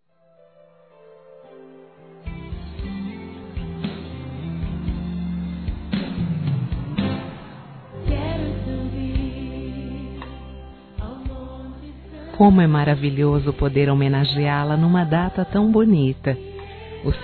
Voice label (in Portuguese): Geral Feminino, Telemensagens; Telemensagens 2017